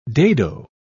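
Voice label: "dado", British English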